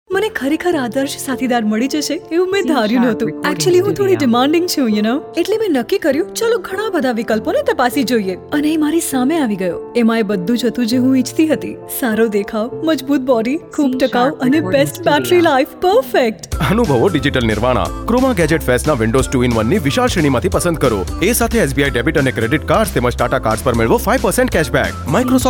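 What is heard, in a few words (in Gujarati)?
Gujarati Female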